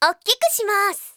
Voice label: winmax, Japan Anime Girls; Windows; Bing; Nanako